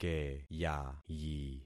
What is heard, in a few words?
"keyayi", concat syllables; Affixing experiment